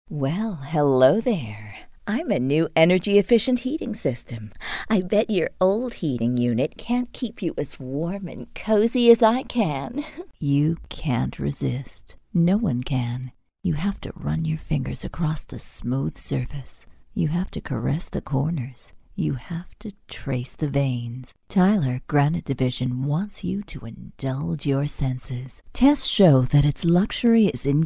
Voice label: Sexy Sultry

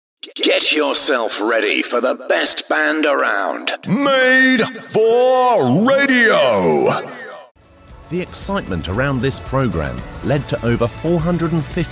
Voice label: Voice of God; tv promos; warm/friendly; documentary

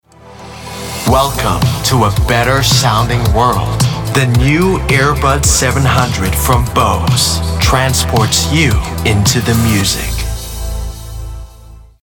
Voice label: Commercial, tv promos, warm/friendly; Bose

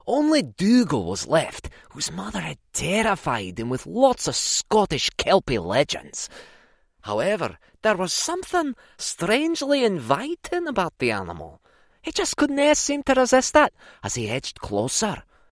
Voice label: Glaswegian

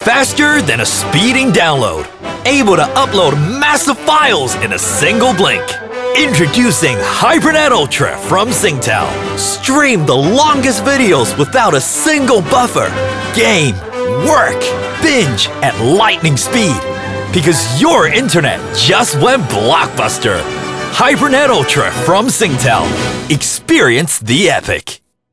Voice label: mid atlantic; SINGTEL

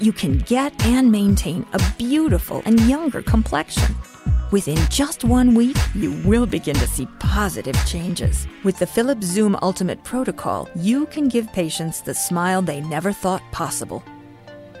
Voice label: E-Learning, en-NZ

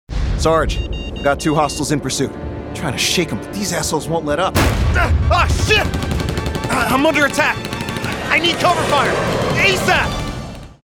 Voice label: Soldier, en-AU

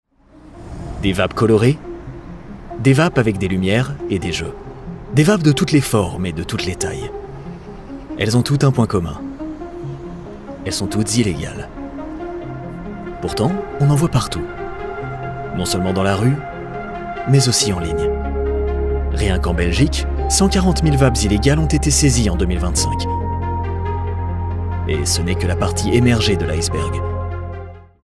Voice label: informative (FR)

